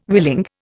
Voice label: "willing", Indian